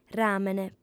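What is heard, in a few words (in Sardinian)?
"ràmene", female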